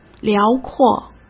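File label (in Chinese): "辽阔", Mandarin Chinese; chinese flashcards; hsk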